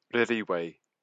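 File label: "rewiwei", Māori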